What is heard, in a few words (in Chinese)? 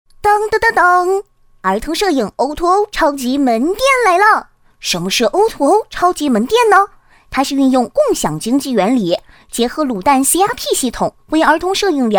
模仿男童